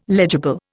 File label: "legible", Indian